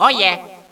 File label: "oh yeah", Echo inside caves, underground; DS; ML BOWSERS INSIDE; Luigi